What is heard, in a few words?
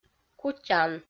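"кутян", Komi, female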